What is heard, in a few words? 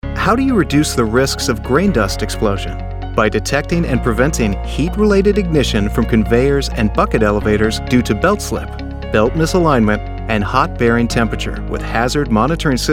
CORPORATE NARRATION